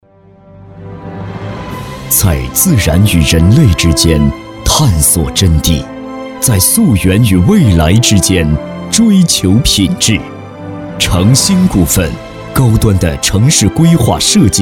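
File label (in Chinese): 国语 男声 宣传片 大气浑厚磁性 沉稳; 城兴股份--建筑行业宣传片-成熟稳重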